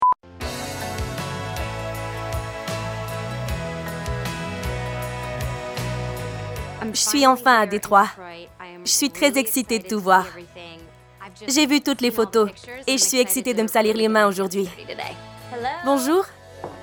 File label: Voice Over